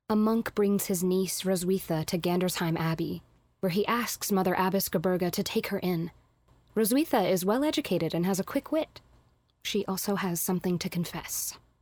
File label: Narration